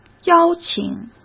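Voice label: "邀请", Mandarin Chinese; hsk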